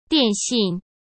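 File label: "电信", Mandarin Chinese; chinese-flashcards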